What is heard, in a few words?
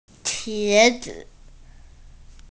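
"qie2zi5", Mandarin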